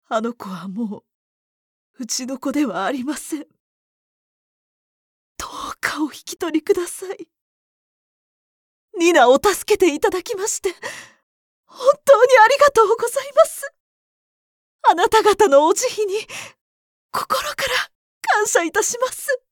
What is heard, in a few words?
old